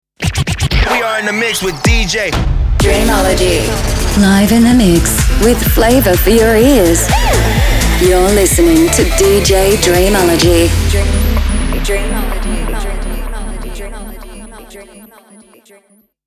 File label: DJ Sweeper 126BPM